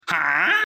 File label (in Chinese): 哈（疑问）; 搞笑